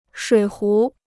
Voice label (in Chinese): "水壶", Chinese